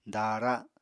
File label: "daara", Apatani